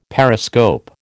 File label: "periscope", American